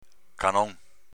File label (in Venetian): "canon", Veneto